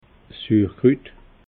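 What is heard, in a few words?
"surkrut", Alsatian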